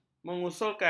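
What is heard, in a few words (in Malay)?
"mengusulkan", Malay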